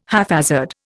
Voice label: "haphazard", Indian